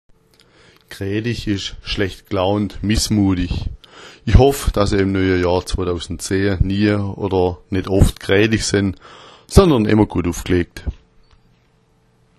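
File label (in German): "graetich", schwäbisch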